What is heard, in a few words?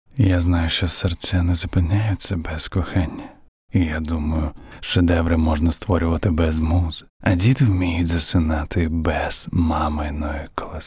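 Ukrainian male Commercial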